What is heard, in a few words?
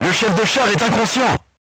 voice message commander contused, crew tanks french